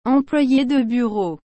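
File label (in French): "employe de bureau", French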